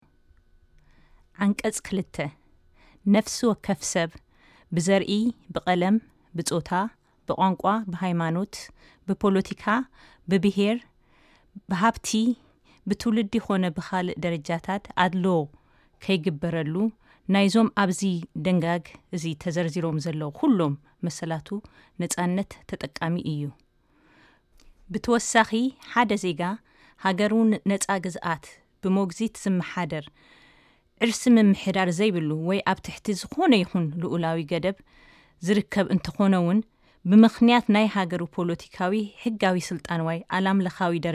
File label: Tigrinya